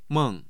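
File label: "meng4", Mandarin Chinese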